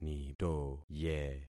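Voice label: "nitoye", concatenated syllables; Affixing experiment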